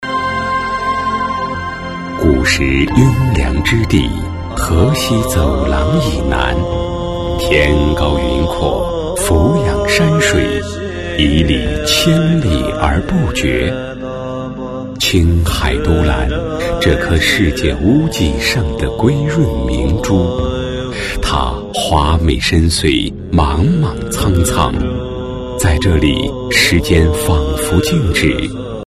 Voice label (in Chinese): 男 纪录片-解说 磁性娓娓道来